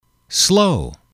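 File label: "slow", English, male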